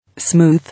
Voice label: "Smooth", English